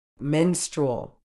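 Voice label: "menstrual", British English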